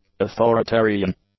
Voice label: "authoritarian", English; adjectives

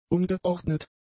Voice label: "ungeordnet", German; adjectives

